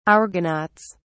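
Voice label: "argonauts", British English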